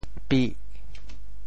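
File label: "pih4", Teochew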